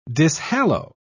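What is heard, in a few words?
"dishallow", British English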